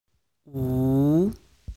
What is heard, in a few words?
chinese pronunciation u yu